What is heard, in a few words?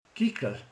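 "kakas", Hungarian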